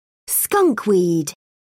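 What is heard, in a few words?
"skunkweed", British English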